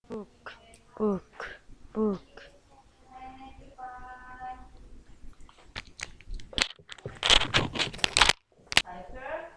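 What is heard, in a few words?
"book", spoken word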